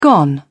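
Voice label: "gone", British English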